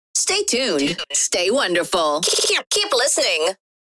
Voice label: Vocals radio voice sweeper stay tuned keep listening